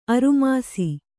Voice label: "ಅರುಮಾಸಿ", Kannada

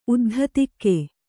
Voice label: "ಉದ್ಧತಿಕೆ", Kannada

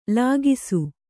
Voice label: "ಲಾಘಿಸು", Kannada

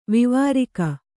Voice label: "ವಿವಾರಿಕ", kannada

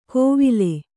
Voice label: "ಕೋವಿಲೆ", Kannada

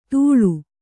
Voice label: "ಧೂಳು", Kannada

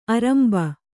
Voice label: "ಆರಂಬ", Kannada

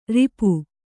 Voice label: "ರೋಪ", Kannada

